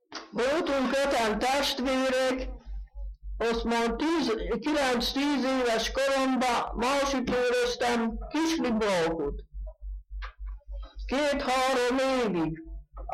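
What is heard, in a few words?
dialect collection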